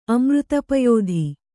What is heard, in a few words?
"ಅಮೃತಪಯೋಧಿ", Kannada